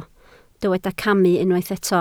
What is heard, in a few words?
"camu", Welsh